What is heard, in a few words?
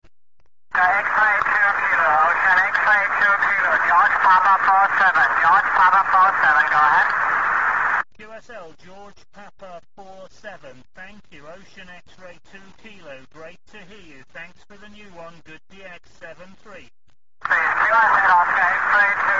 qso